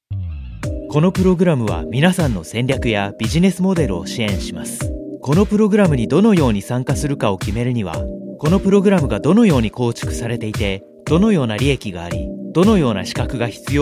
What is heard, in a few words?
jap